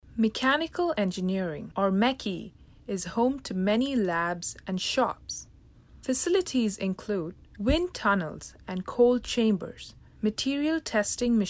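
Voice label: English; Mechanical Engineering; NSO Tour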